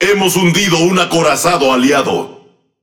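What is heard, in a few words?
Spanish Speech; Destroy Ally Battleship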